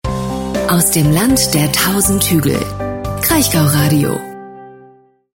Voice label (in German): Stationvoice Webradio; Kraichgau Radio